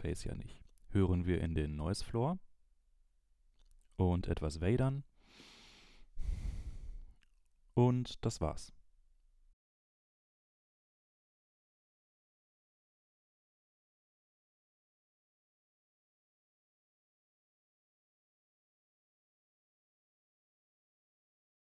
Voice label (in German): HMC660MK2 an Behringer XENYX302USB; MHC660MK2